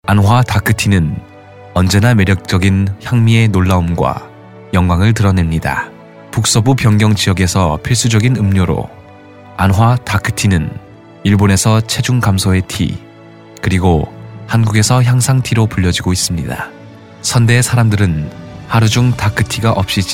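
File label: Korean, male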